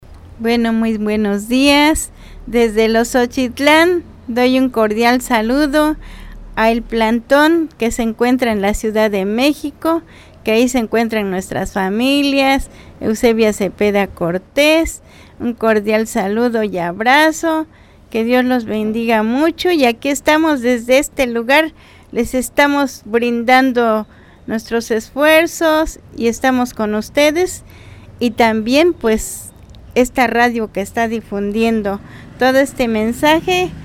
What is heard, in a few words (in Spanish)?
Testimonio Companera; eloxochitlan